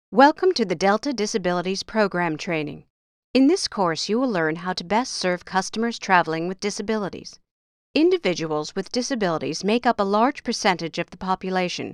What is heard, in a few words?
E Learning